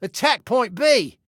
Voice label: ATTACK, Male

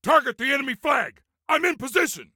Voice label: Target Flag, Soldier Male; Soldier Male 03

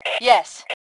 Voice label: Yes, Female; T2 Female 03

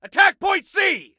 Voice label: Soldier Male, ATTACK; Soldier Male 02

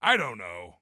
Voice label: Respond Don't Know, Soldier Male; Soldier Male 03

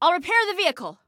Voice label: Self Repair Vehicle, Female; Female 02

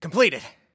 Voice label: Command Completed, Soldier Male; Soldier Male 01

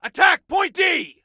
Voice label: ATTACK, Soldier Male; Soldier Male 02

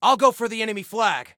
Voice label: Self Attack Flag, Soldier Male; Soldier Male 01

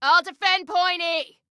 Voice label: defend, Female

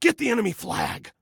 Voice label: Attack Flag, Male; Male 01

